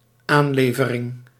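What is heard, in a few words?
"aanlevering", Dutch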